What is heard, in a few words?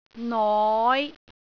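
"noi5", Cantonese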